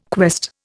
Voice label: "quest", Indian